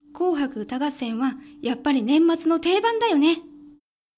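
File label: female; 紅白歌合戦は、やっぱり年末の定番だよね。; パワフル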